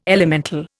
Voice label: "elemental", Indian

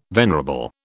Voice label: "venerable", British

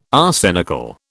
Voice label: "arsenical", British

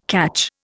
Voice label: "catch", Indian

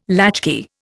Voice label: "latchkey", Indian

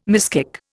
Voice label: "miskick", Indian

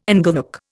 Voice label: "inglenook", Indian

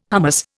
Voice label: "hummus", Indian

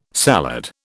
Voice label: "salad", British

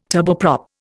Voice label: "turboprop", Indian

